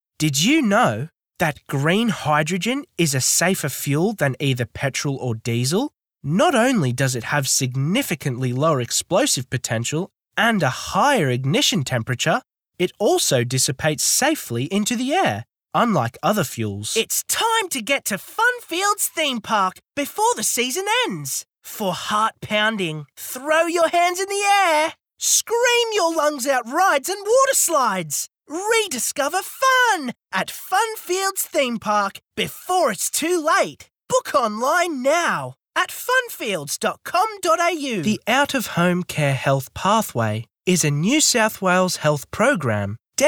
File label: 19yo; Voice Overs